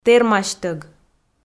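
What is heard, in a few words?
"тэрмасьтӧг", Komi, female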